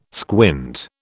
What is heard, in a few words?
"squint", British